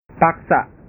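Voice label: "paksa", Indonesian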